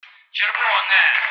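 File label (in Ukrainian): "Червоне", Ukrainian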